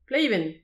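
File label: "pleiben", Breton; petit precis de prononciation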